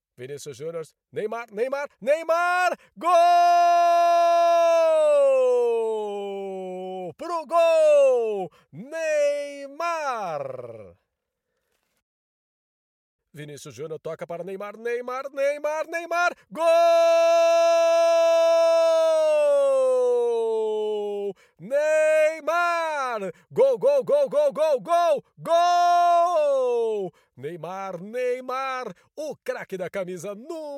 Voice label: mens apparel line ad

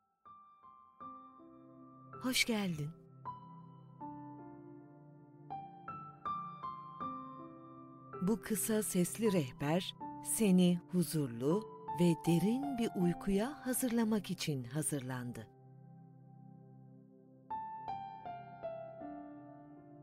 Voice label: sleep meditation

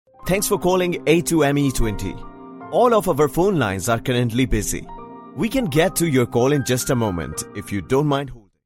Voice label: ivr phone recording english indian accent

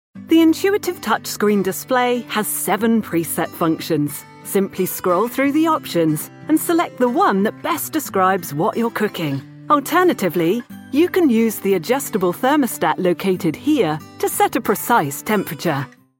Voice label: narration web explain russ

